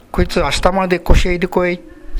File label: "koshaeru", minamiyamagata